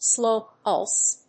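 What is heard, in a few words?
"slow pulse", English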